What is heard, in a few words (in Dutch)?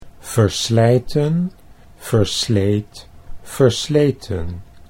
verslijten versleet versleten, Dutch